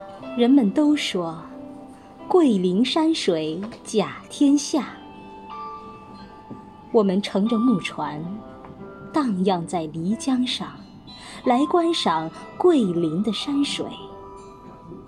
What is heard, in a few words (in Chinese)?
散文朗诵桂林山水